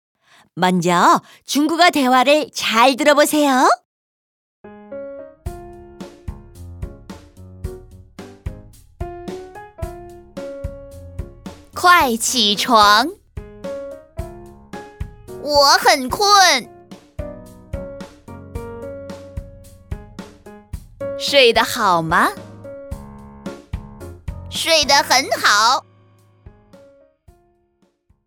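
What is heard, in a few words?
dialogue Chinese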